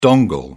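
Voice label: "dongle", British English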